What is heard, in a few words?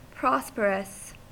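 "prosperous", English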